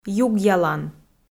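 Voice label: "югъялан", Komi, female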